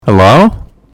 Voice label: hello louder

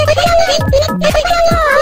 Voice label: yapping

